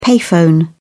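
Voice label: "payphone", British English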